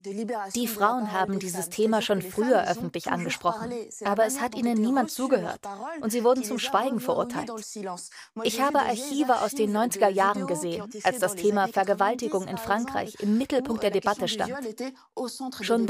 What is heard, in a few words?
Voiceover Magazin; ARTE Magazin 27